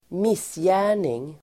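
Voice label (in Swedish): "missgärning", Swedish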